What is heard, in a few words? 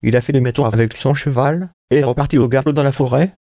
nphones synth